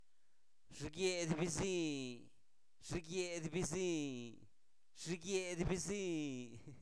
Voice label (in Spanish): ratonera, Zapoteco, santo domingo albarradas; animales; INALI